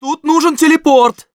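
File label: Scout need teleporter, russian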